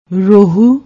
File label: "rohu", Punjabi